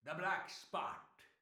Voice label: pirate voice; netent